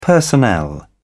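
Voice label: "personnel", British English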